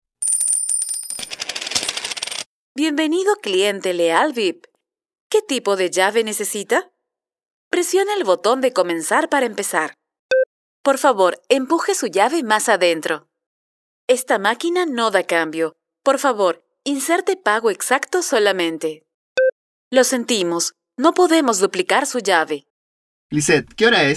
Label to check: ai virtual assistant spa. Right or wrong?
Right